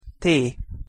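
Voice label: "t", Hungarian pronunciation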